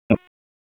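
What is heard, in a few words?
Raleigh Police Southeast District Dispatch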